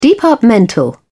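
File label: "departmental", British English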